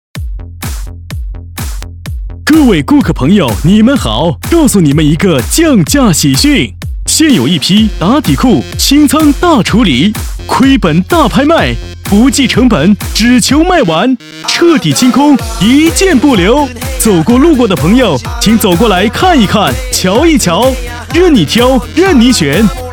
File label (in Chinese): 男 促销 打底裤促销